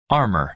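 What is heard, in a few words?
"armor", American English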